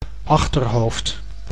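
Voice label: "achterhoofd", Dutch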